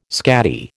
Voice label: "scatty", American